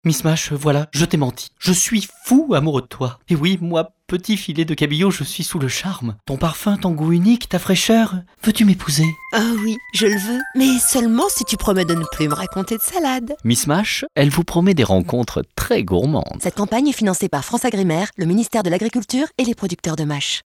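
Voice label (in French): gentille, comédienne voix off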